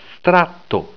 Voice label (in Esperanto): "strato", Esperanto